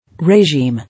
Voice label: "Regime", English